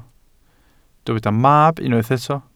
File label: "mab", Welsh